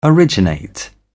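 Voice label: "originate", British English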